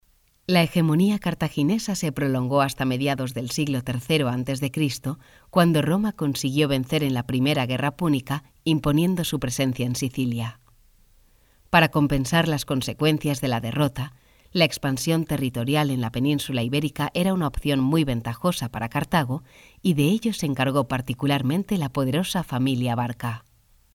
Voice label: locutora presentadora television spanish voiceover